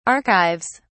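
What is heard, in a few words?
"archives", English, British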